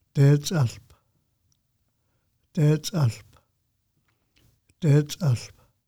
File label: Spirea Musqueam name pronunciation